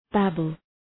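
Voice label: "babble", English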